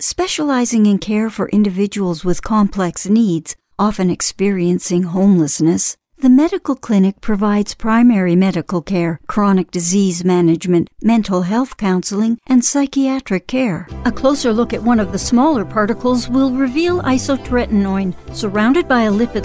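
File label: english canadian female narration; voice demos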